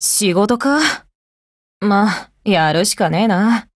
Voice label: HELLO, JP; GF Alarm; Mk46